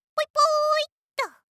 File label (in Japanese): システムボイス